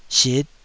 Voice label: "sit5", Taiwanese syllable